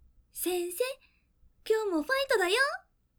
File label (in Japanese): 起動, システムメッセージ